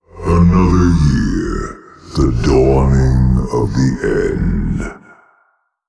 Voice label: Male Old God Happy New Year Lunar

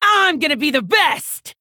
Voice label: Female Orc

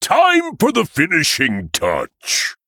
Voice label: Male Tuskarr Play

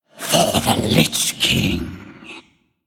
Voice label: Male Undead Play

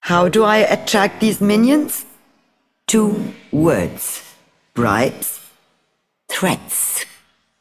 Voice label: Female

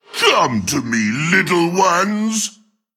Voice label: Male Tolvir

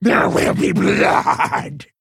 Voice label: Female Quilboar Attack

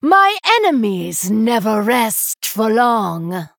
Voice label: Female Night Elf Bark; Lady Anacondra

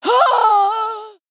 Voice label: Female Troll Death

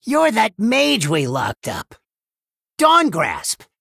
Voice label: Male Gnome; Scabbs

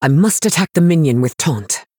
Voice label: Female High Elf ERROR TAUNT

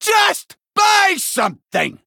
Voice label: Male Dwarf Attack

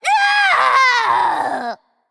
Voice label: Female Undead Death

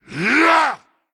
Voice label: Male Darkglare Attack

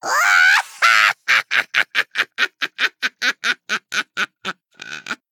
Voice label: Female Gnome Victory; Rin

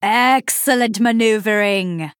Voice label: Female Night Elf, Well Played

